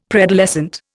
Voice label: "preadolescent", Indian; Dictionary